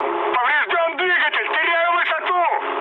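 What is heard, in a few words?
Russian damaged engine mood med, speech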